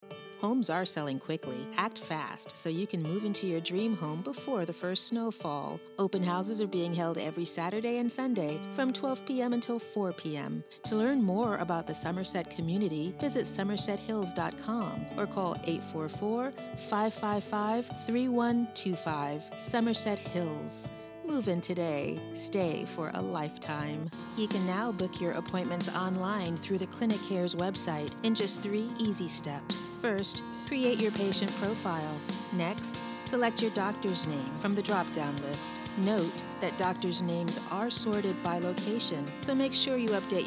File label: Voice Over Explainer Video